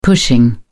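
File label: "pushing", British English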